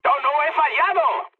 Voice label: bomb failure, mood high, speech; War Thunder